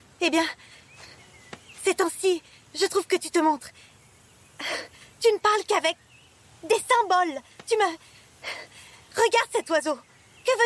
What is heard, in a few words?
DUBBING; voice-talent; the seagull